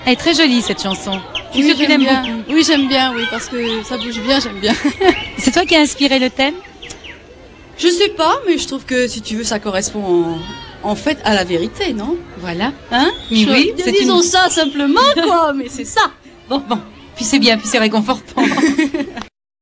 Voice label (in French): commentaires